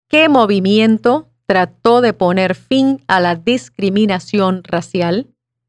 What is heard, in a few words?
Spanish